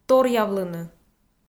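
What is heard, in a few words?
"торъявлыны", Komi, female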